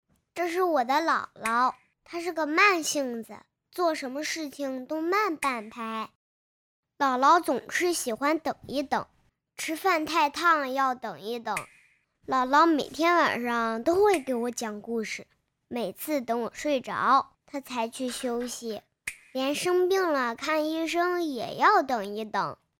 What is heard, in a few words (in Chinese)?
女 姥姥 男童